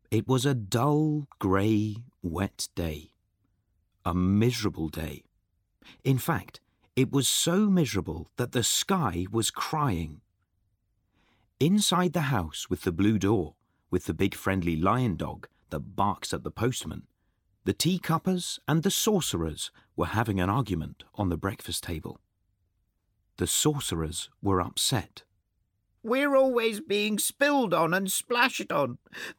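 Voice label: Narration Reel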